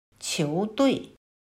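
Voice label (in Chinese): "球队", Chinese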